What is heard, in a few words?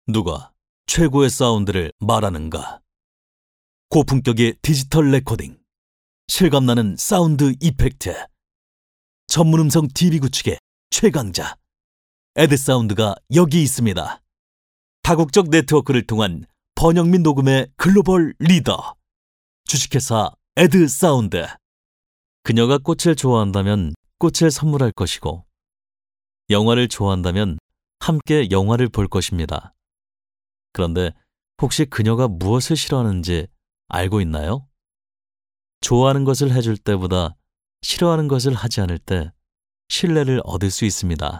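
Korean, male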